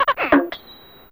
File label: "Ehh Ehh", Fairlight CMI Effects